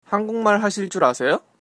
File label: do you speak, Korean; 한국말 하실 줄 아세요?